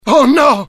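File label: fear grenade, IT; mohaa; dialogue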